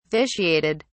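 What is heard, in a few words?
"vitiated", English, British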